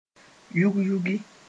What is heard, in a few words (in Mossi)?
"yug-yugi", moore